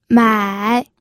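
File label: "mai", third tone, pinyin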